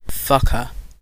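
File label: "fucker", British English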